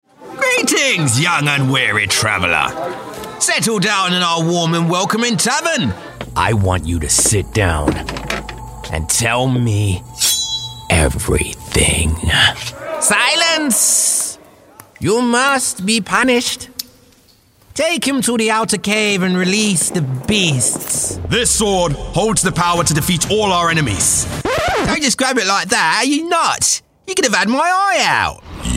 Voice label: animation, Fun Black Mixed Race Voice

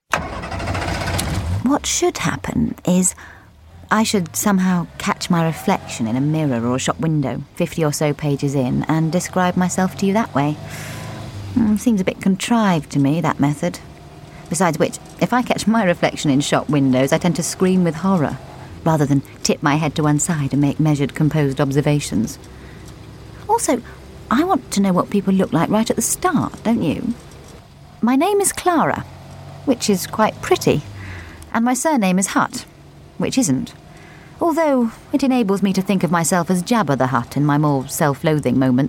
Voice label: audiobook

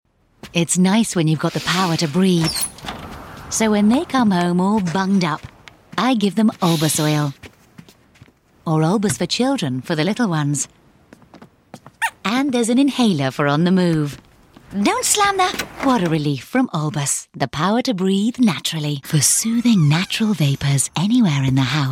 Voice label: commercial

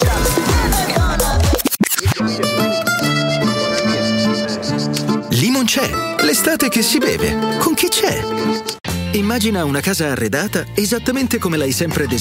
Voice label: italian male commercial

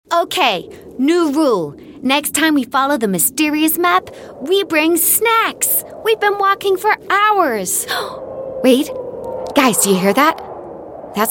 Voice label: character us voices

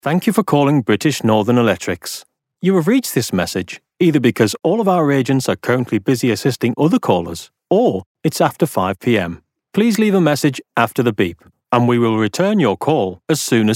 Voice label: telephone

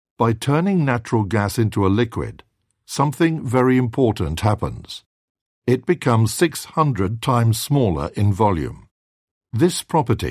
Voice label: explainer, British Mature Informative Voice

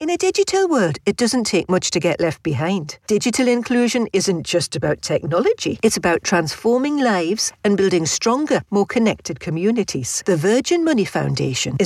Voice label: Geordie narration

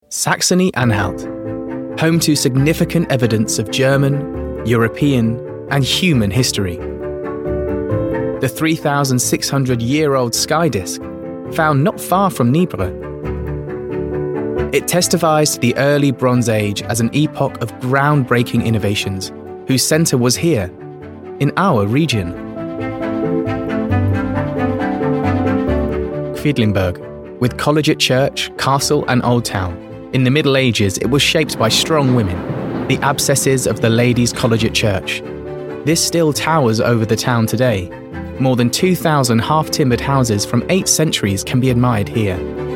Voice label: documentary

